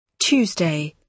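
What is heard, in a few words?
"tuesday", British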